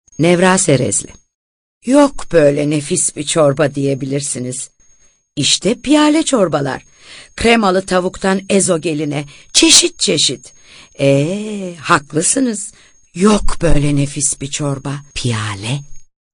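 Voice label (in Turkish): türkçe kadın; Nevra Serezli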